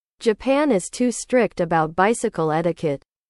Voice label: english